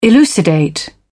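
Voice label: "elucidate", British English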